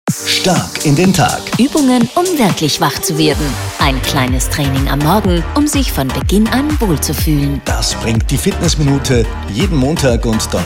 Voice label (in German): Sprecherdemo Fitnessminute